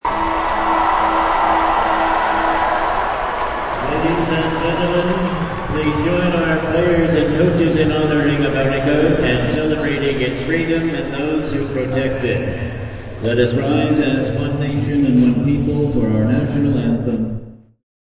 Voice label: Announcement for all to rise for national anthem at sporting even; Announcement for all to rise for national anthem at sporting event; Arenas